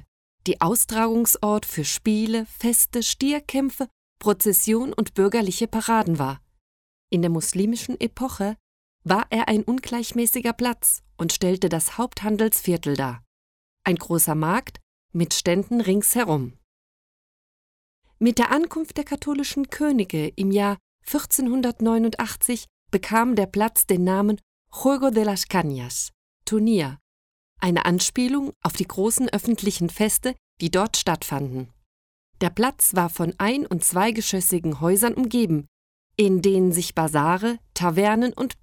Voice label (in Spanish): AUDIOGUIA ALMERIA ALEMAN plaza vieja